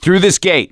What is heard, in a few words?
misc directional, voice; gambler